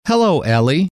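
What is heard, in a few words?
"hello", male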